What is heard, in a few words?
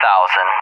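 "1000s", English, USA; Numbers; DCS; Allied Flight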